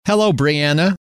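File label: hello, male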